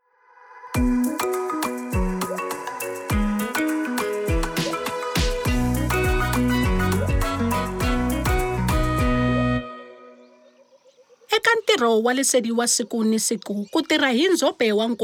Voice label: Tsonga, Audio Soundbites; Sanitation; Service Providers; Treatment; DWS